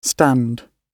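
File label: "stand", British English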